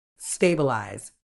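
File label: "stabilise", British English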